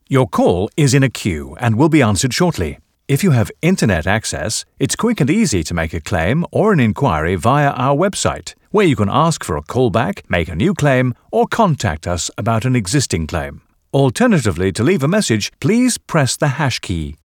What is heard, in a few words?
IVR